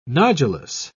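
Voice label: "nodulous", British English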